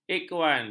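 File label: "ikhwan", Malay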